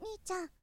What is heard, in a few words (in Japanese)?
shonen nichan